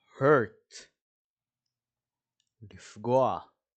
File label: "hurt" – "לפגוע"